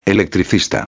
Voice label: "electricista", espanhol

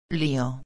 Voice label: "lio", espanhol; palavra do dia

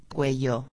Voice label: "cuello", espanhol; palavra do dia

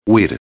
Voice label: "huir", espanhol; palavra do dia

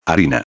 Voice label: "harina", espanhol; palavra do dia

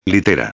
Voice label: "litera", espanhol; palavra do dia

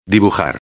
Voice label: "dibujar", espanhol; palavra do dia

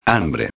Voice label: "hambre", espanhol; palavra do dia

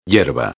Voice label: "hierba", espanhol; palavra do dia

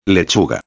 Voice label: "lechuga", espanhol; palavra do dia

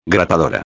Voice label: "grapadora", espanhol; palavra do dia

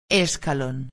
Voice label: "escalon", espanhol; palavra do dia

